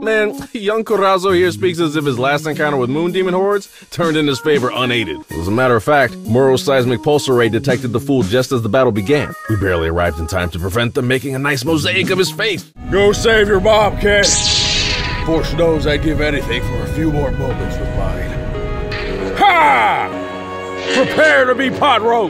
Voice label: Character